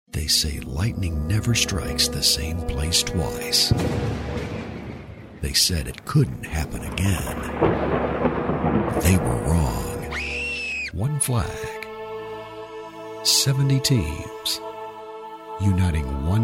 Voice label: Promo